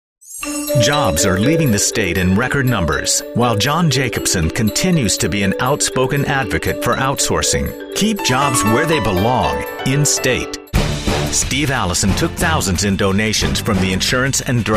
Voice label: english american political ads